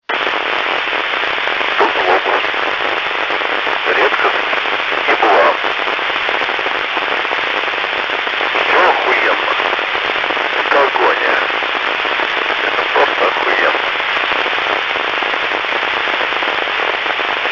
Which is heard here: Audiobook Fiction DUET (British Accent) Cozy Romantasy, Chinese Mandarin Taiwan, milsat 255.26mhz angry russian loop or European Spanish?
milsat 255.26mhz angry russian loop